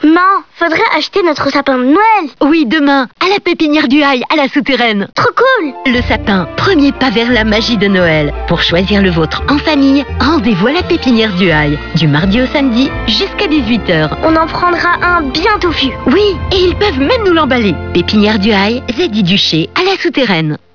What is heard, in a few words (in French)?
voix enfant